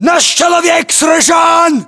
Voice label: reaction casualty generic, ru; localized english

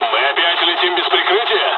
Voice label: dialog, dialogs russian; us midway; War Thunder

